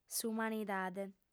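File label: "s'umanidade", lingua sarda, female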